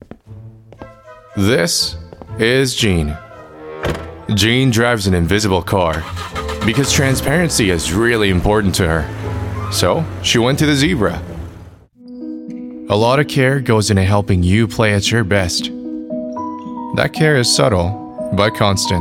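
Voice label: Korean, general; GoLocalise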